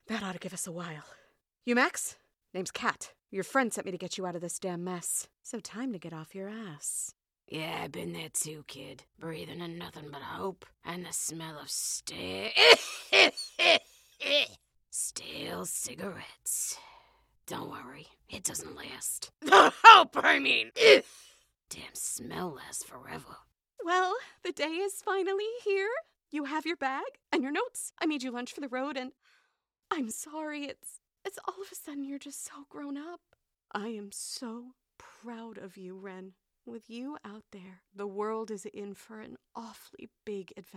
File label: women video games; A vibrant, dynamic feminine voice embodying the importance of being earnest - from bright & bubbly to warm & wistful, or anywhere in betwe